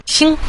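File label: "xing", Mandarin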